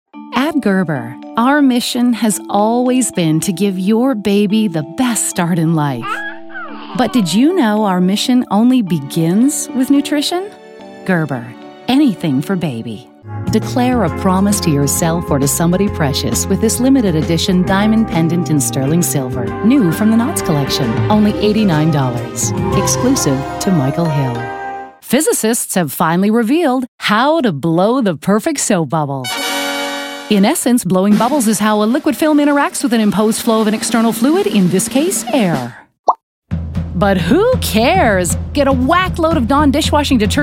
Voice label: Commercial; Married With Mics